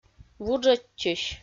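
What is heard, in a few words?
"вуджӧдчысь", Komi, female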